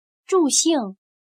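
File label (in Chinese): "助兴" Mandarin Chinese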